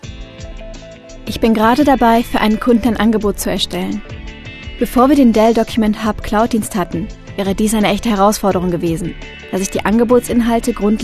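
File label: German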